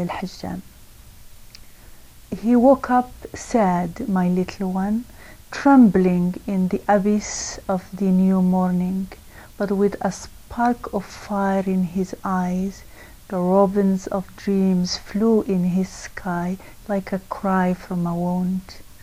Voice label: poem english